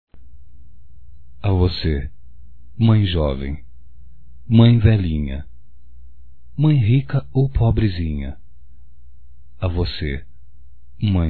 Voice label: Dia das mães VERSO DE CARINHO (M), masc; Telemensagens 2017

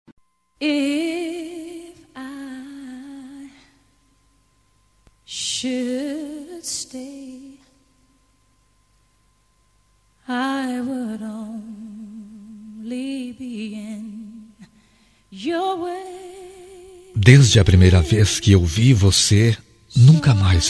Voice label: CONQUISTA MASC; Telemensagens; Conquistas Cantadas paqueras; Telemensagens 2017

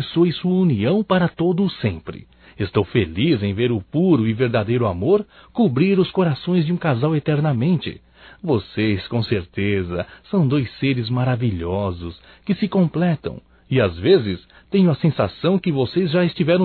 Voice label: PARABENS PELO CASAMENTO DA AMIGA (M); Casamentos

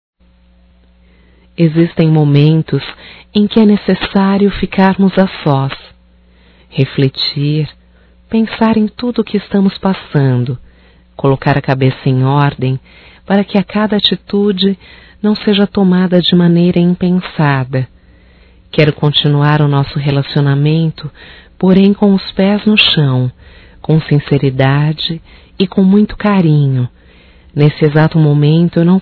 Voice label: Romântico Feminino; Românticas

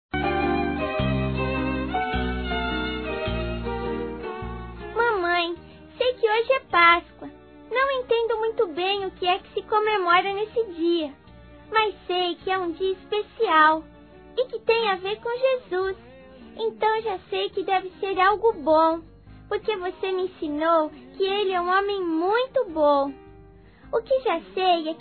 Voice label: FELIZ PASCOA MAMÃE (VOZ DE CRIANÇA); Telemensagens

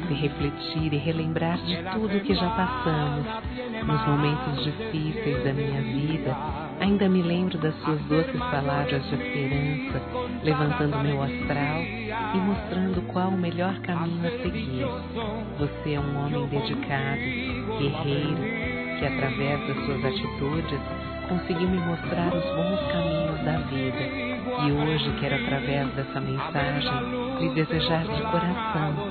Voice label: ANIV. ROMANTICO F

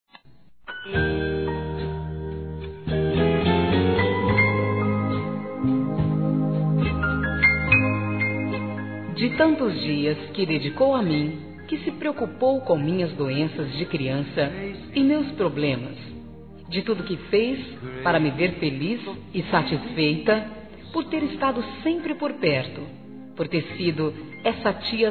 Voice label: Aniversário Tia F, Telemensagens; Aniversários; Aniv Familiar

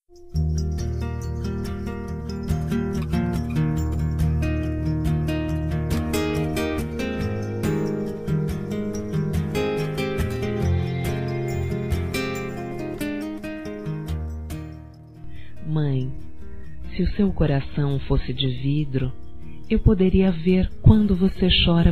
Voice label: simbolizando criança para mae f, Telemensagens, Dia das Mães; Telemensagens 2017

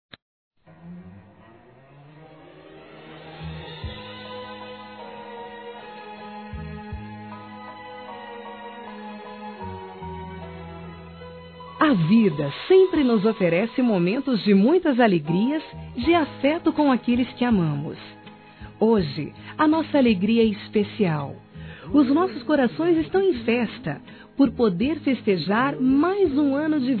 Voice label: ANIV. SOGRO F

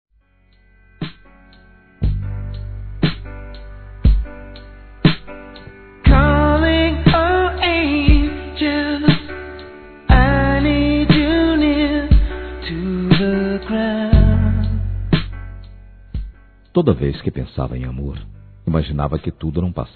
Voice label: Romântica Geral II Masculino

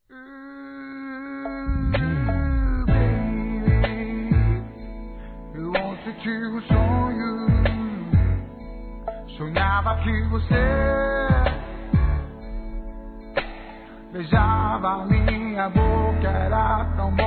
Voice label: SAUDADES (M)

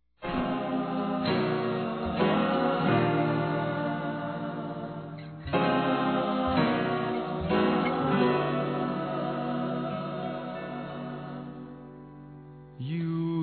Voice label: Amizade que virou Paixao, Telemensagens, Fem

